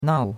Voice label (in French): "nao4", chinois; Cours-de-Chinois